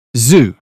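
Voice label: "zoo", British phonetics; 英语音标发音（剑桥版）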